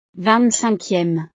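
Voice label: "ving cinquieme", French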